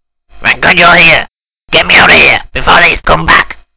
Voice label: old man

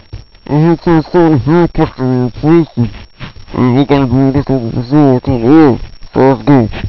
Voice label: mumble

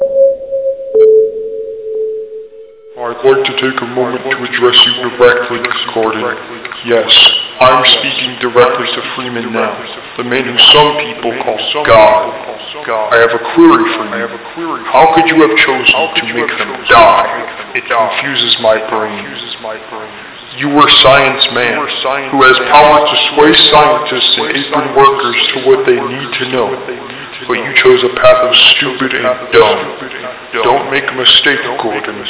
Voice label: evil speaks

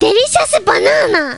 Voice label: cheer

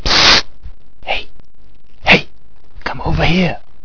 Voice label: whisper; agent

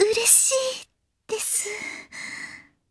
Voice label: happy

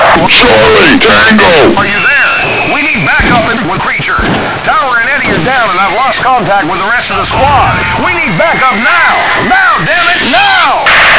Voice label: garg grunt

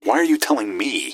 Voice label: answer, gasmask; scmod

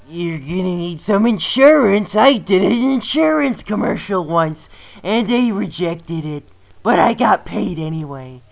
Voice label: insurance commercial; otis

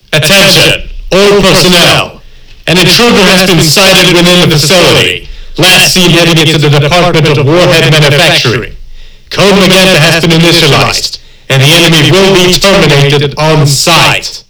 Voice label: announcement

